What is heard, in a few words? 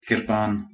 "kirpaan", Punjabi